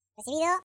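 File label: "Take cover", Spanish; hedgewars; Default es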